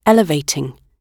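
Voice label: "elevating", British English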